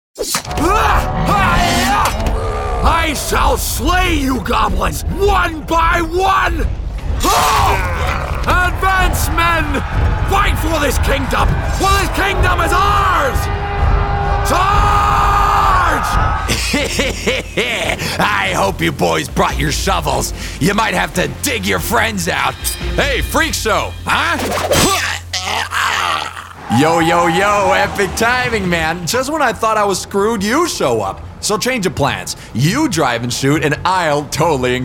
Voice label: male american and spanish castilian transatlantic accent; video gaming demo